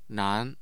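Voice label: "nan", Mandarin Chinese, tone 2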